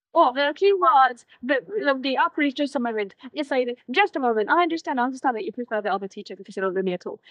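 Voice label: Voice Acting es, female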